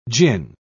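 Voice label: "djinn", British English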